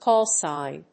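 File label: "call sign", English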